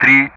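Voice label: Speech Sound Russian; DCS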